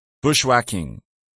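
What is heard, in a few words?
"bushwhacking", English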